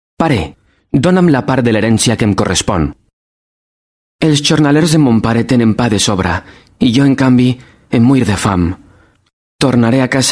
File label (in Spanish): Euro Spanish Doblaje